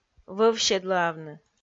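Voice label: "вӧв седлӧавны", Komi, female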